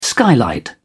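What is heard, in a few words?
"skylight", British English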